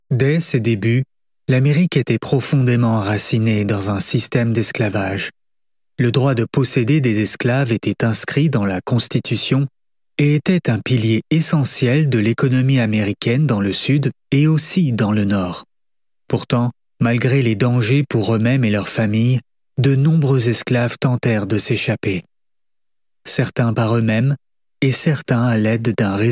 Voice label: FR Narration documentaire historique

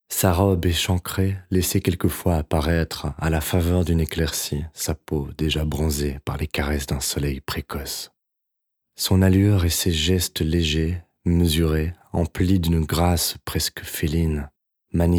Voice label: Sensuel

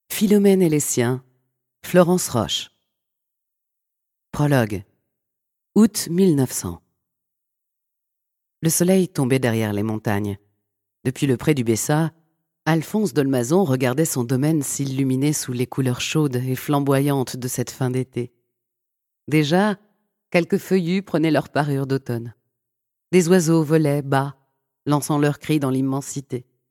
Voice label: Livre Audio

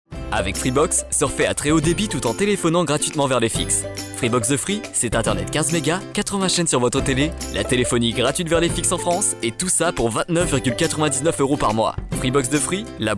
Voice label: pub tv voix off

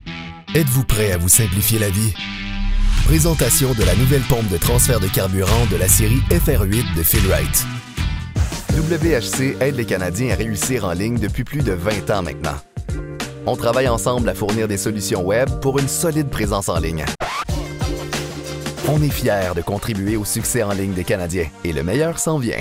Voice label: corporative

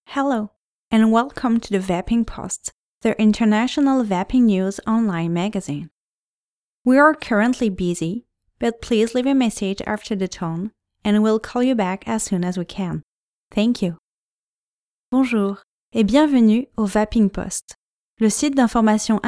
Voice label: Repondeur FR

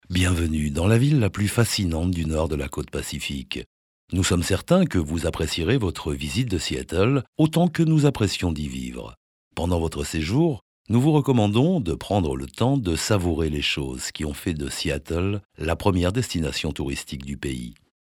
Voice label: audio guide

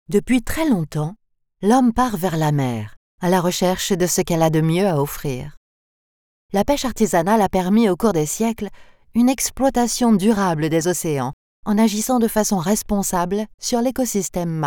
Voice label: Documentaire Peche

